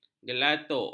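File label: "gelatuk", Malay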